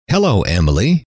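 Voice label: "hello", male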